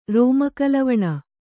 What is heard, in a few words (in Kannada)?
"ರೋಮಕಲವಣ", kannada